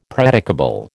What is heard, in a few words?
"predicable", American